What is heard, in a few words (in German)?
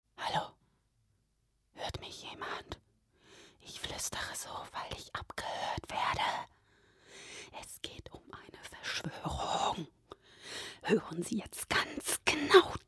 Werbespot Radio